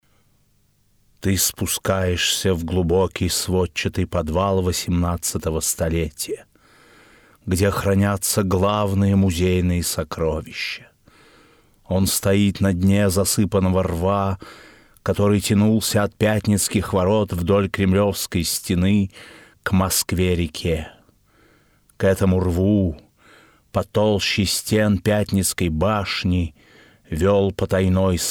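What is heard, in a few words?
announcer audio guide Kolomna; Diktorskaya Audiogid Kolomna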